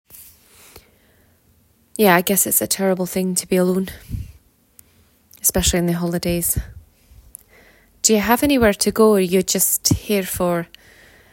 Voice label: Scottish

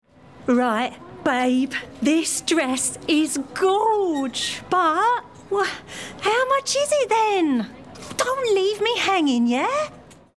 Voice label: Character Essex Girl

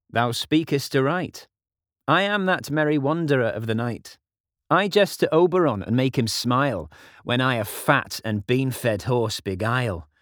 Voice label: Yorkshire

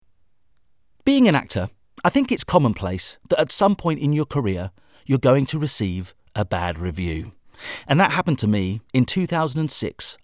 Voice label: Conversational

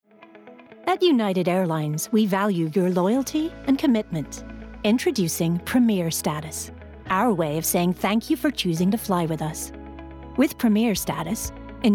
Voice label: Corporate clear straightforward US Accent; United Airline

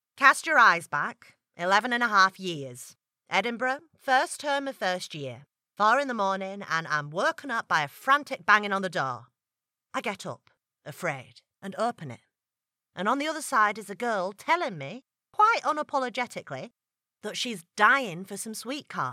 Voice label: Yorkshire; One Day

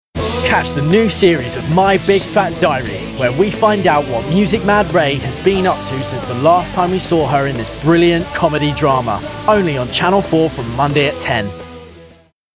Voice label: Promo; My Big Fat Diary

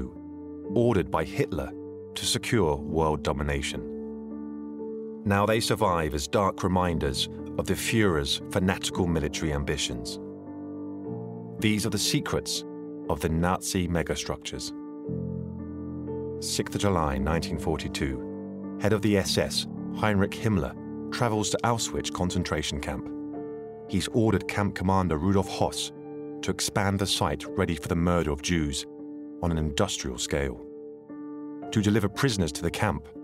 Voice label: Documentary WW2 Railway

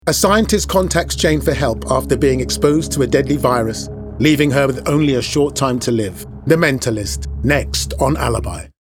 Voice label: Continuity

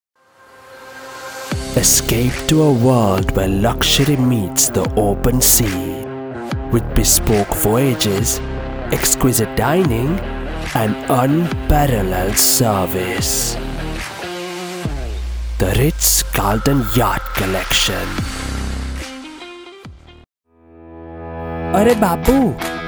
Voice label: Indian Accent

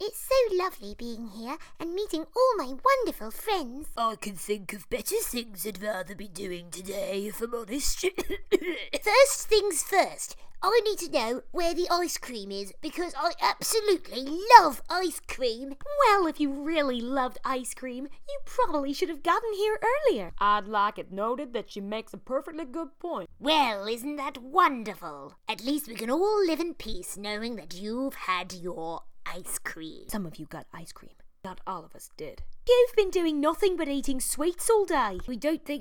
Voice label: Animation voice

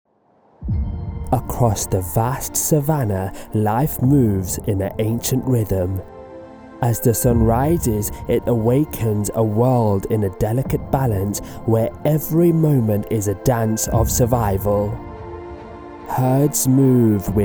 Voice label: Documentary Showreel

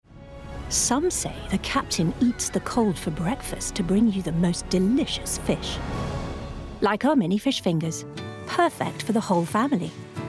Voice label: Commercial Montage

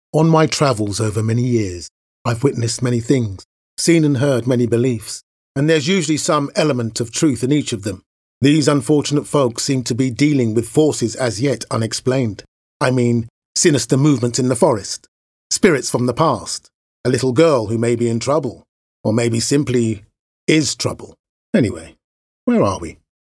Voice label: Narration

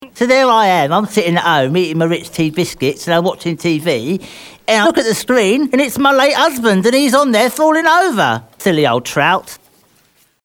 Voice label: Old Woman, Gravelly, Comedic; characters animation

